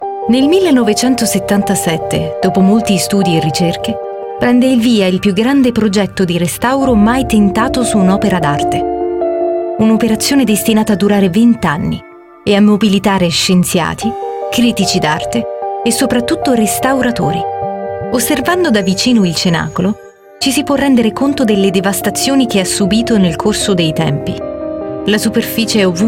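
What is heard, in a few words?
italian documentaries, Female; voice actor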